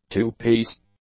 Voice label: "two-piece", English; adjectives